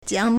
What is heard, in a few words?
"jiang1", Chinese